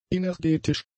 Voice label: "energetisch", german; adjectives

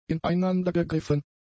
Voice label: "ineinandergegriffen", German; adjectives

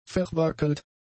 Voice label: "verwackelt", German; adjectives

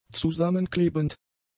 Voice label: "zusammenklebend", German; adjectives

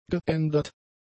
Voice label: "geändert", German; adjectives

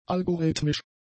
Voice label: "algorithmisch", German; adjectives

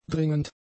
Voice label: "dringend", German; adjectives

